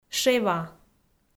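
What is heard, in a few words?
"шева", Komi, female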